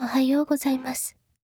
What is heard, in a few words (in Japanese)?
ohayo sad